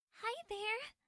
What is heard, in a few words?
anime girl hi there